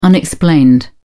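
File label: "unexplained", British English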